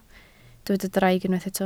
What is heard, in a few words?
"draig", Welsh